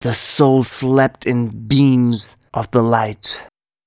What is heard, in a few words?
male, Dance spoken hooks; Mutekki Media; Dance Ultra Voice VOL-1